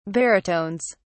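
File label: "baritones", British English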